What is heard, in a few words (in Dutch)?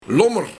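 "Lommer", Luik, Schaduw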